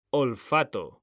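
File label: "olfato", Spanish; mekanta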